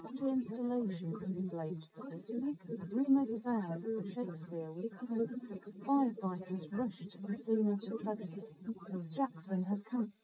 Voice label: female srec 130ms 20cm sim; SiSEC11; underdetermined